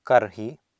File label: "karhi", Sanskrit